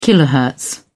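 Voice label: "kilohertz", British English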